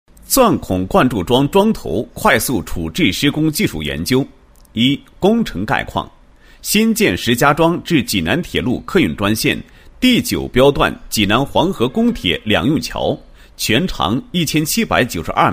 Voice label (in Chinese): 男 解说词; 钻孔灌注桩桩头快速处置施工工法